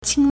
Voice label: "qing1", Chinese

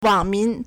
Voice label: "wang3min2", Chinese

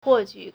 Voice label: "huo4 qu3", Chinese

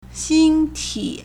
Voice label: "xing1ti3", Chinese

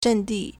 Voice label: "zhen4di4", Chinese; 阵地; 陣地; zhèndì; position; front

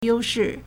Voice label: "you1 shi4", Chinese

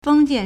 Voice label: "feng1 jian4", Chinese

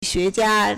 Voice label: "xue2jia1", Chinese

